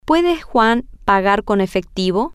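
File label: can Juan pay with cash, Spanish, female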